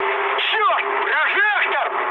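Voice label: searchlite, mood med, Russian; War Thunder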